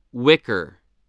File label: "wicker", voice, english